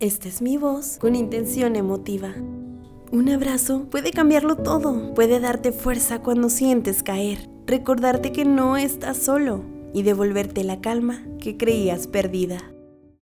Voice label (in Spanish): Emotiva Musicalizado, locutora